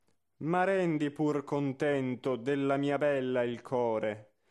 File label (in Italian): espressivo; Bellini